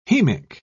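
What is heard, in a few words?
"hemic", British English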